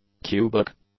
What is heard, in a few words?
"cubic", English; adjectives